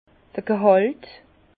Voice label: "ghalt", Bas Rhin; vin; jauge

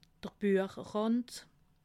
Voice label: "buech rand", alsace

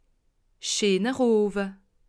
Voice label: "owe", alsace

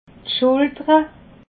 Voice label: "schultere", alsace

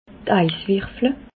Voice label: "is werfel", alsace

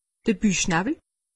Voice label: "buchnawwel", alsace; medecine

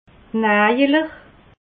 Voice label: "najele", alsace

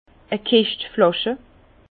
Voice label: "kischt", Bas Rhin; vin; caisse de bouteilles

